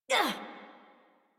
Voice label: Adult Operator Jump, en; Warframe; New War

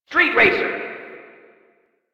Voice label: Arcade Voices; Ambience; Props; Warframe; NineteenNinetyNine

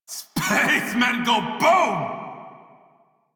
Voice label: Crew Gun Kill Fighter Male en; Dialog; Warframe; Railjack Crew; Red Veil

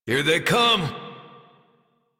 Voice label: Anger Adult, en, Dialog; Warframe; Duviri Paradox Quest

